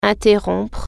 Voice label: interrupt, french